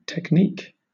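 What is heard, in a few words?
"technique", British English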